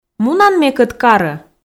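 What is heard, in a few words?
"мунан мекӧд карӧ", Komi, female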